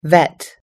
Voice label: "vet", us english